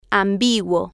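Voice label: "ambiguo", Spanish, female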